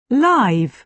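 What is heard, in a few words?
"live", British English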